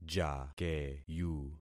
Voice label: "jakeyu", concat syllables; Affixing experiment

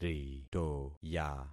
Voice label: "ritoya", concat syllables; Affixing experiment

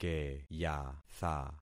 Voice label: "keyatha", concat syllables; Affixing experiment

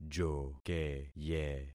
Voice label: "jokeye", concat syllables; Affixing experiment

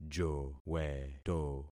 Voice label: "joweto", concat syllables; Affixing experiment

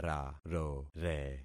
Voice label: "rarore", concat syllables; Affixing experiment

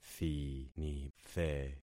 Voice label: "thinithe", concat syllables; Affixing experiment

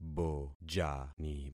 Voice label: "bojani", concat syllables; Affixing experiment

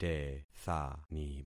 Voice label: "tethani", concat syllables; Affixing experiment

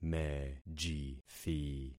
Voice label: "mejithi", concat syllables; Affixing experiment

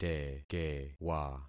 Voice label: "tekewa", concat syllables; Affixing experiment

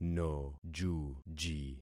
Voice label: "nojuji", concat syllables; Affixing experiment

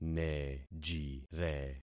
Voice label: "nejire", concat syllables; Affixing experiment

